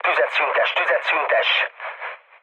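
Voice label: Hungarian player friendly fire mood low, speech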